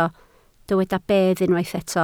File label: "bedd", Welsh